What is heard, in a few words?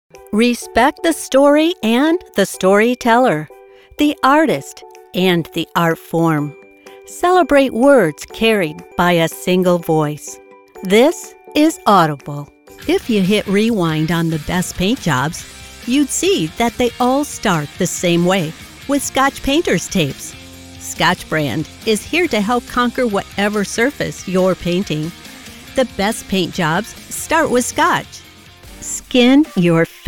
COMMERCIAL